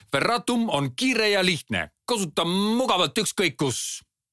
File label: Hard selling